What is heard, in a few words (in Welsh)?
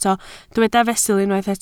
"fesul", Welsh